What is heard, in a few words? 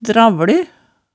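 "dravle", Hemsedal dialect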